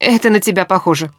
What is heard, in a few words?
reaction joke, human woman; Stalker Anomaly